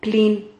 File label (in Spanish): "clin", Silabas